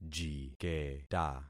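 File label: "jiketa", concatenated syllables; Affixing experiment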